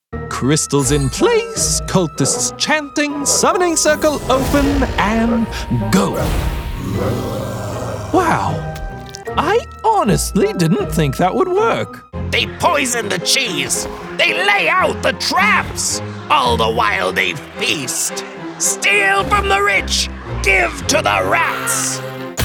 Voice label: Character Reel